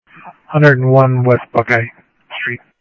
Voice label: Sheriff Central, scanner audio trunk; ThompsonPeakScot; MCSO Central